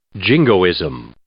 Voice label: "jingoism", English

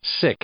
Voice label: "sick", English; adjective; Ingles; i-ɪ

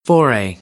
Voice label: "foray", American; AmericanBritish

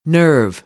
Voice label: "nerve", American English; ɝː - ɜː

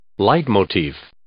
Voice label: "leitmotif", English

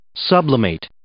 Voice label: "sublimate", English

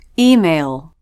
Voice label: "email", Dark L; English

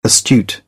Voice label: "astute", British; t - ʧ; English

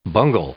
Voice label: "bungle", English; verb

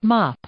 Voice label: "mop", English; noun

